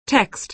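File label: "text", American English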